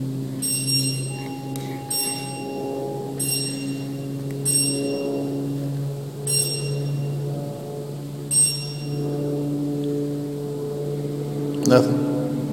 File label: whisper